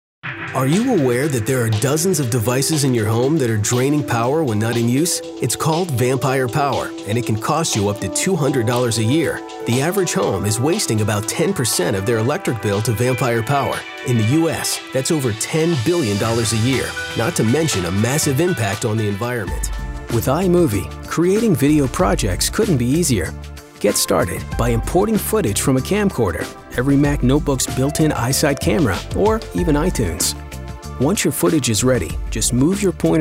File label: Narration, Male voices